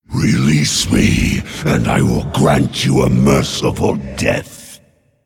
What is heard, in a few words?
Male Pit Lord Play